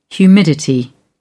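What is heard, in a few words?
"humidity", English, British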